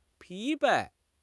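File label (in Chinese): "疲惫", Chinese; HSK6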